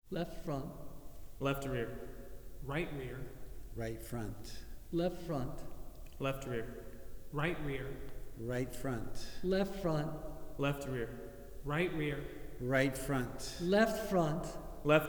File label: Four Surround Voices Test; The Ultimate Headphone Demonstration Disc ( 24Bit192kHz ); Chesky Record